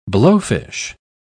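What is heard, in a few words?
"blowfish", British English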